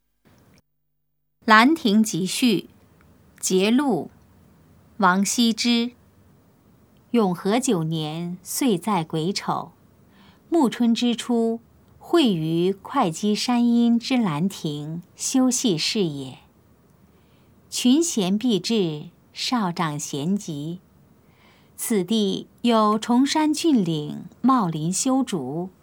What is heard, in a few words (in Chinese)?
putonghua; 蘭亭集序（節錄）; 普通話